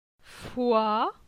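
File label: "Foi", French; phonetique; la syllabe oi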